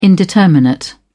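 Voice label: "indeterminate", British English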